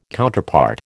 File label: "Counterpart", American